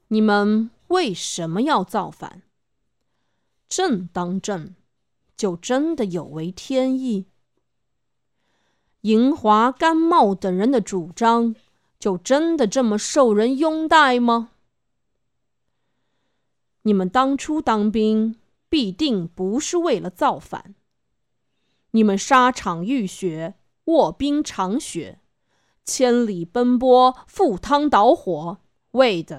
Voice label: 霸气演讲, 女, 中年, 普通话; 芈月

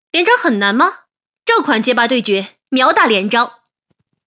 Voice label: 游戏 配音

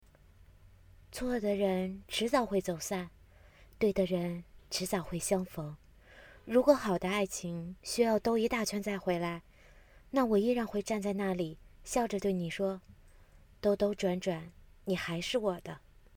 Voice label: 少女

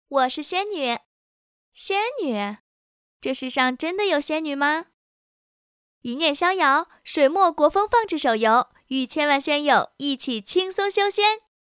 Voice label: 女少年女音

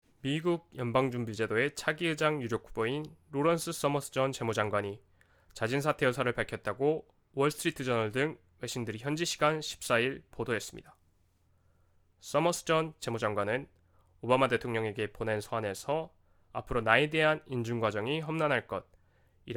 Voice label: 韩语配音

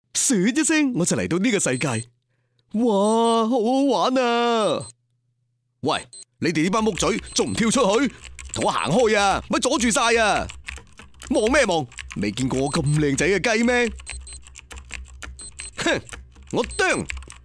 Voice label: 粤语搞怪无厘头; 粤语搞怪无厘头样

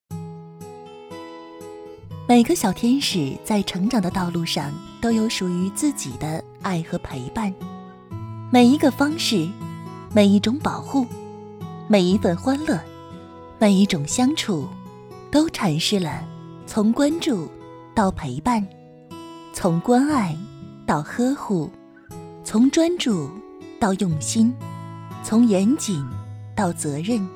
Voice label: 温暖阳光宣传片

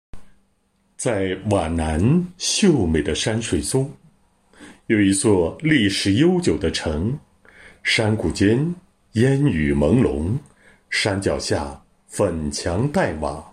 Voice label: 纪录片

